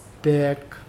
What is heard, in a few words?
"bag", Mokilese